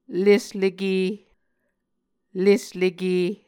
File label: "lesleke", muscogee